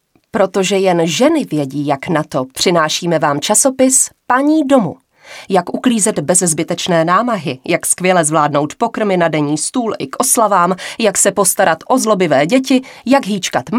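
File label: female Czech commercial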